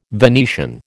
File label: "Venetian", American